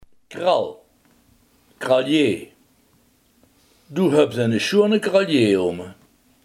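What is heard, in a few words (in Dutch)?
halsketting, Limburgse gesproken woordenboeken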